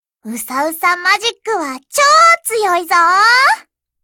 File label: warcry